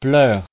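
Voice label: "pleur", French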